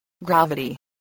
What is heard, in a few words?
"gravity", spelling